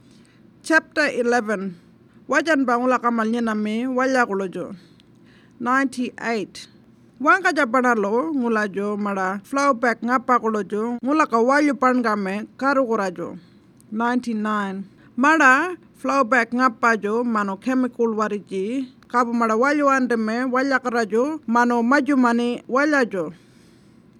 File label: Warlpiri; Fracking